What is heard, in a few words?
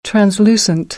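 "translucent", American, English; AmericanBritish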